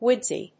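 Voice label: "woodsy", English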